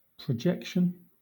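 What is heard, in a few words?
"projection", English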